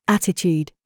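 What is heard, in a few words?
TRAP, words, British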